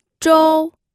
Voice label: "zhou1", pinyin

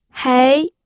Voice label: "hei2", pinyin audio

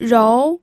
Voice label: "rou2", pinyin

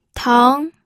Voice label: "teng2", pinyin

